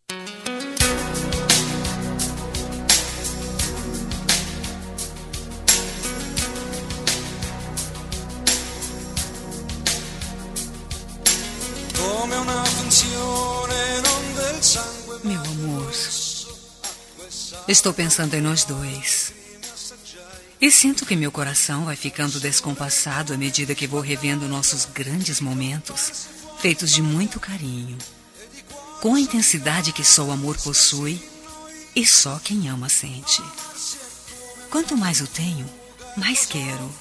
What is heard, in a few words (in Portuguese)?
ROM NEUTRA FEM